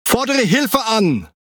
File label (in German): Speech Quick Commands Need Support, DE; World Of Warships